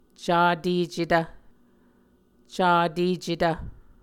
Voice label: "catecetv", Muscogee

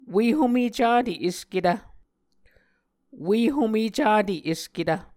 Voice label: "ue home cate esketv", Muscogee

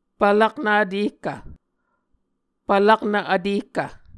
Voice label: "pvlaknv vtehkv", Muscogee

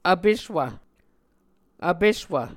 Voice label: "vpeswv", muscogee

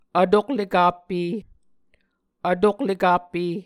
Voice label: "vtoklekappe", Muscogee

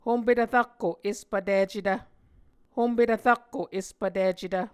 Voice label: "hompetv rakko espvticetv", Muscogee

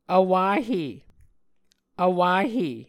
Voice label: "vwahe", Muscogee

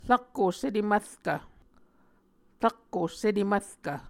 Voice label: "rakko setemvrkv", Muscogee

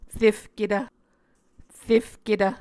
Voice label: "refketv", Muscogee

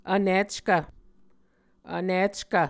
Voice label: "vnickv", muscogee

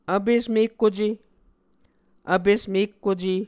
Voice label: "vpes mekkoce", muscogee

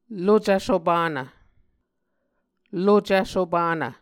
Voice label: "lucv sopanv", Muscogee

